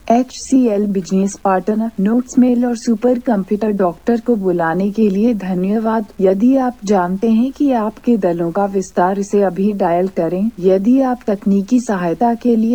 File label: Main Menu Hindi; NotesMail